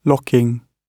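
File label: "locking", British English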